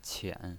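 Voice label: "qian" third tone, pinyin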